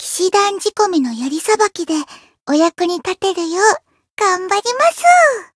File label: Voice story jp; soira